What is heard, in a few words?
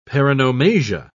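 "paronomasia", British English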